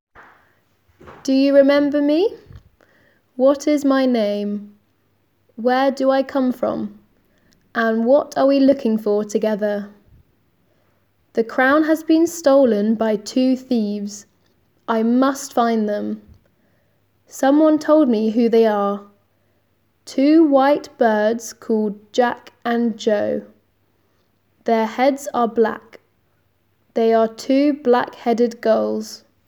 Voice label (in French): anglais